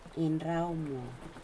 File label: fish leaf, aneityum